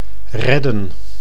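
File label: "redden", Dutch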